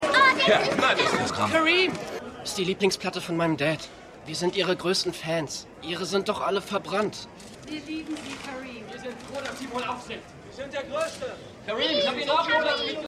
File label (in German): Maedchen Junge; Winning Time